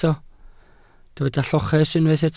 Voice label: "lloches", Welsh